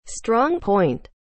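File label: "strong point", English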